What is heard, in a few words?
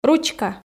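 "ручка", Komi, female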